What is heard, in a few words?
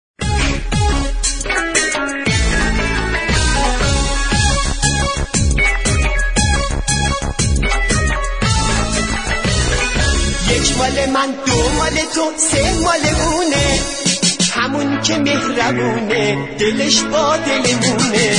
male man male